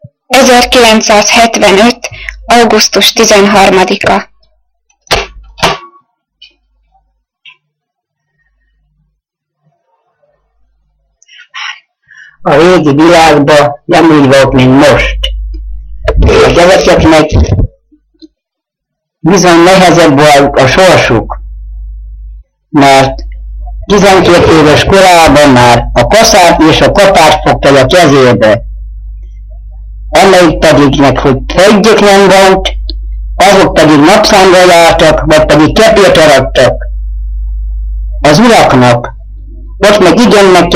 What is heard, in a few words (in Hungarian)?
nyelvjaras gyujtesek